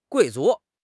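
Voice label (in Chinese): "贵族", Chinese; HSK6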